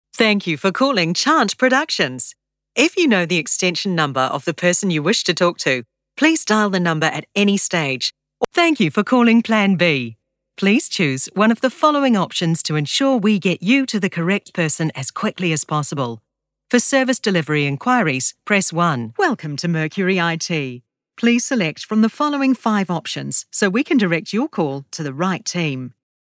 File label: NZ Female Voice Phone